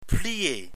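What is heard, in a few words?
"plier", French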